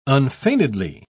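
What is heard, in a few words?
"unfeignedly", English, uk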